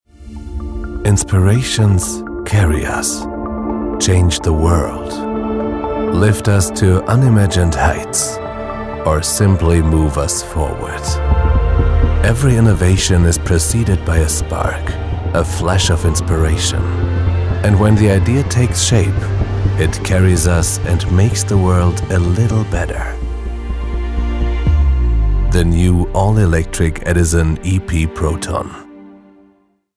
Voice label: male German English with soft European accent commercial; proton